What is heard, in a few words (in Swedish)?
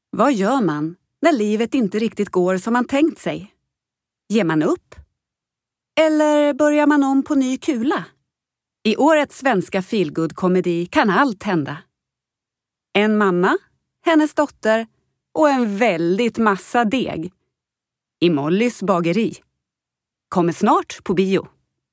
Trailer